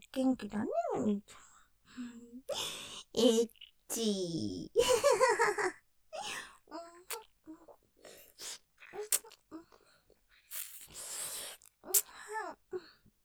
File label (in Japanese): 元気だねお兄ちゃん→エッチ♪; ステレオ; フェラ